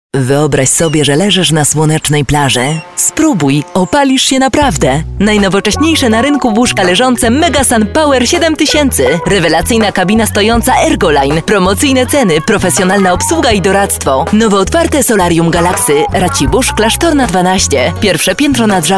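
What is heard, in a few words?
Polish, female, commercial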